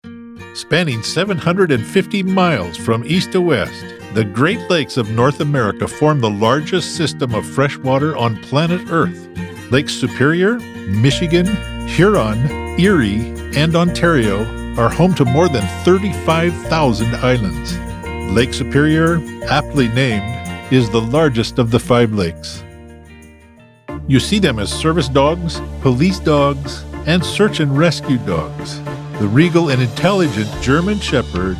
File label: Narrative